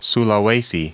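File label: "Sulawesi", US English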